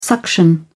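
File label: "suction", British English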